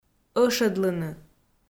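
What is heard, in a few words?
"ӧшӧдлыны", Komi, female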